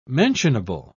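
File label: "mentionable", British English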